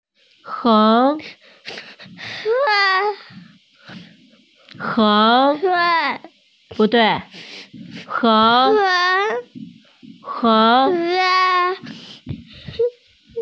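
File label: "heng2", Chinese, F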